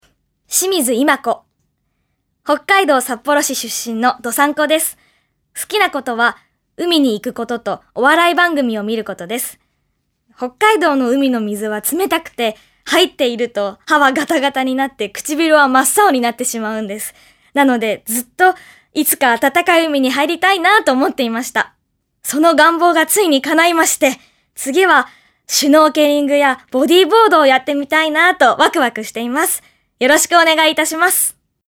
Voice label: free talk, female voice